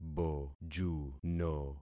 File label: "bojuno", concat syllables; Affixing experiment